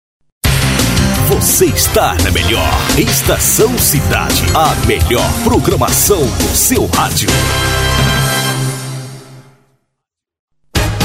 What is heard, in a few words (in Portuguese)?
masculinas vinhetas; estacao cidade